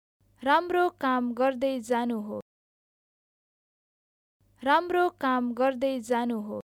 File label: Keep up the good work, Nepali